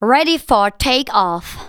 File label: "READY FOR TAKEOFF", SPOKEN; Techno Trance Essentials